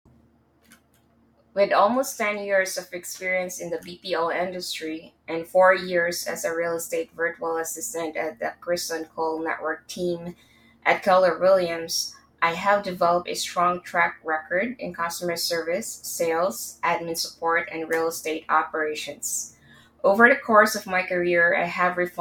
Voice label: Voice Recording, Self Introduction; Brivity Virtual Assistants; General Virtual Assistant for The Kristan Cole Network